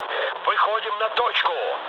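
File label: near waypoint, mood med, Russian; War Thunder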